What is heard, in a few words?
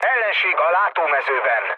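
enemy detected, mood low, Hungarian; War Thunder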